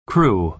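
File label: "crew", American English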